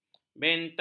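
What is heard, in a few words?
"benta", Malay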